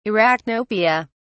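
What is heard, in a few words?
"arachnopia", British English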